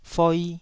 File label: "foi2", taiwanese syllables